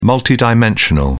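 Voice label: "multidimensional", English, British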